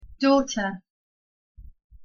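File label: "daughter", British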